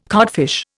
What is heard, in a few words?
"codfish", Indian